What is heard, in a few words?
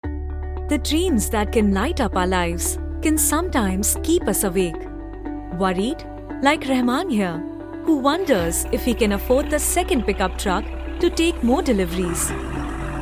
English Commercial; Gold Loan